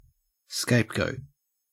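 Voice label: "scapegoat", Australian English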